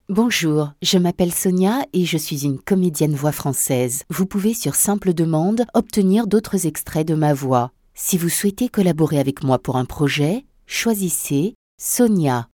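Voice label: French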